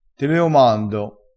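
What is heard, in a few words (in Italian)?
"teleomando", vocabolario dialettale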